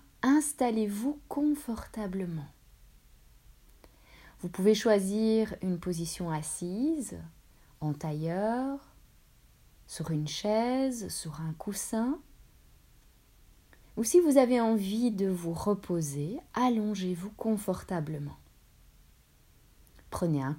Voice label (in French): Relaxation pour enfants voyage en mer